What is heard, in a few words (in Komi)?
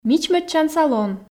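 мичмӧдчан салон, Komi, female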